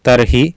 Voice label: "tarhi" there, Sanskrit

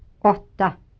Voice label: "åtta", Swedish

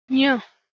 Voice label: "nje" Albanian

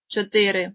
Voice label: "4", Ukrainian

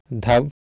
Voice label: "dhav", Sanskrit

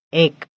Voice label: 1, Gujarati

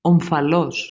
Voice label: "omfalos", Modern Greek

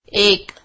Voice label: one, Marathi

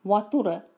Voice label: "wature", Sinhalese

